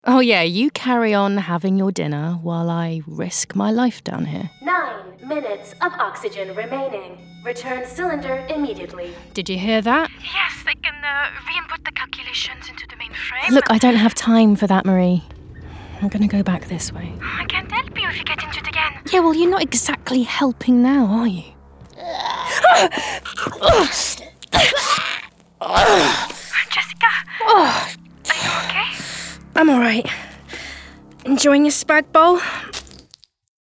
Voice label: Voiceover British Neutral French American Robot and Alien